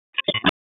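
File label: Police Spec Ops Tac, radio; Raleigh; Spec Ops Tac 7; wake; p25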